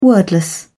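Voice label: "wordless", British English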